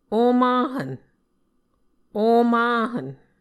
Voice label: "omaNhvn", Muscogee; Mvskoke Words with Nasalized Vowels

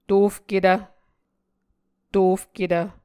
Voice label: "tofketv", muscogee

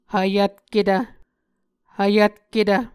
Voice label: "hvyvtketv", Muscogee

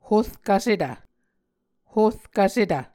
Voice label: "horkvsetv", Muscogee

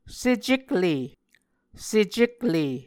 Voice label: "secekle", Muscogee

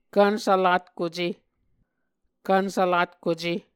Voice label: "kvnsvlatkuce", Muscogee

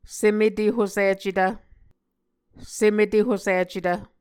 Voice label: "sem-etehosicetv", Muscogee

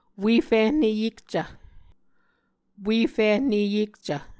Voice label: "ue fihne yekcv", Muscogee

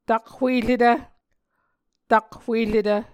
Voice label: "takhueretv", Muscogee

